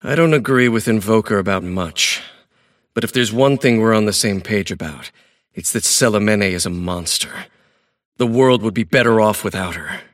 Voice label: monologue; davion; dota

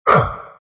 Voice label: pain; Visage

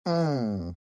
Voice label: happy; shredder

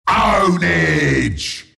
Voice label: announcer kill ownage; killing spree; dota; announcer dlc bristleback

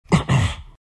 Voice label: treant pain

